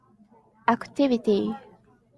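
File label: "activity", Japanese, female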